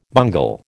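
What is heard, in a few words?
"bungle", American English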